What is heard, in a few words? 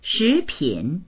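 "shi2pin3", Mandarin Chinese; 食品; shípǐn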